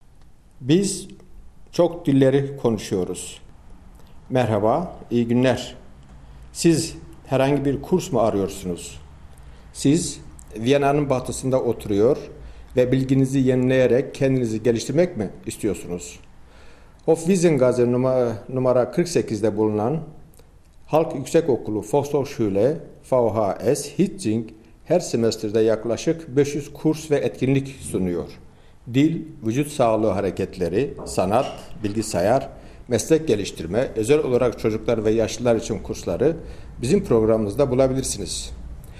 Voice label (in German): in türkisch; vhs hietzing